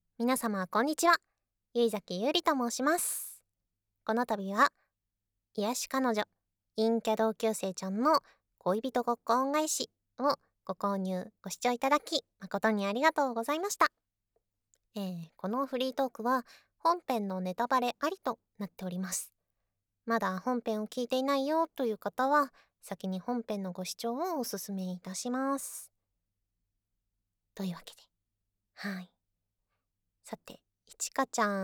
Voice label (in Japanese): フリートーク; 眠れる純愛ASMR; 癒彼女〜陰キャ同級生ちゃんの恋人ごっこ恩返し〜